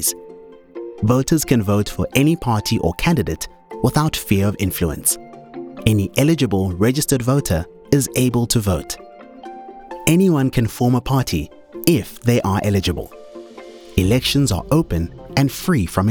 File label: Loudhailer Project - English, Electoral code of conduct; IEC